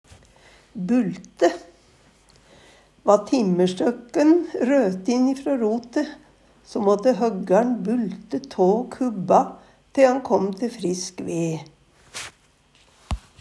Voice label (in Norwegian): bulte, Numedalsmål